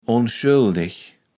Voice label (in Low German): "onschöldeg", kaevels platt